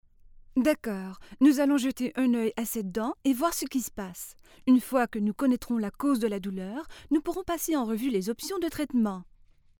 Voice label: french canadian